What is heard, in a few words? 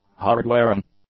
"hard-wearing", English; adjectives